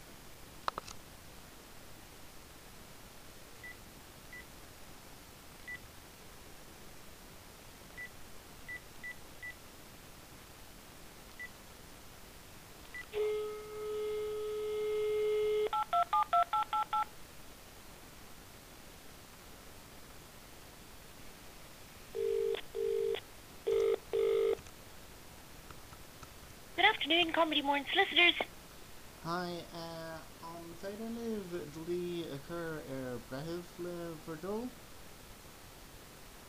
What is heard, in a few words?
telephone talk